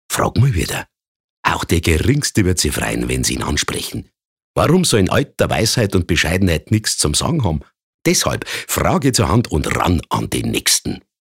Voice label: Dialekt Bayerisch

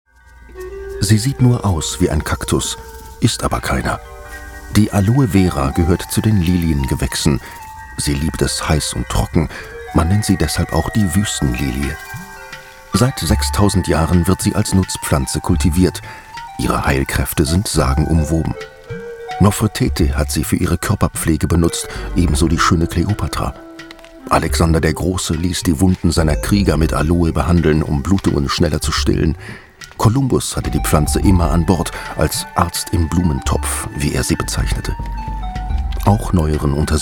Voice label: Kommentar, Freundlich

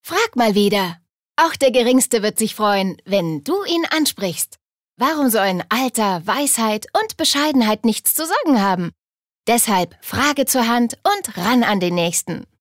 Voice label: Werbung Jung

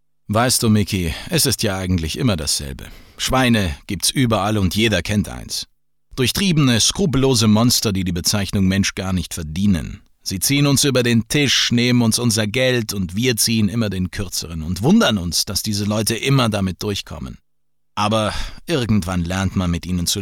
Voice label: Schauspiel Cool

